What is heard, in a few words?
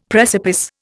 "precipice", Indian; Dictionary